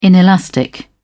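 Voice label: "inelastic", British English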